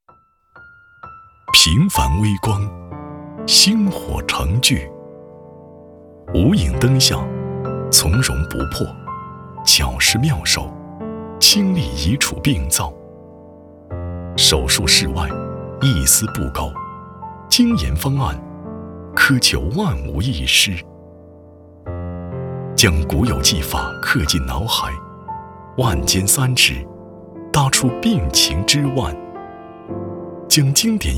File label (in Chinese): 男, 走心情感类; 平凡医声